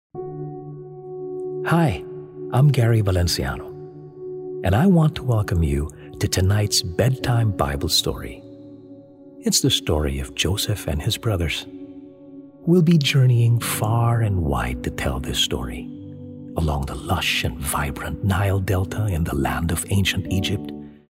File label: Bedtime Bible Story